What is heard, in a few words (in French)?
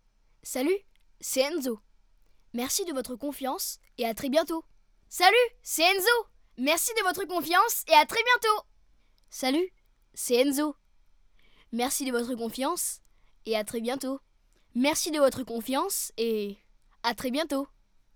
voix enfant; abstudio